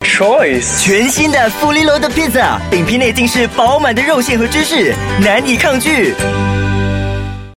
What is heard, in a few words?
Mandarin, Male; Pizza Hut